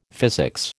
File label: "physics", American